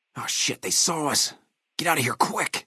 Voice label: male adult; FNV; take water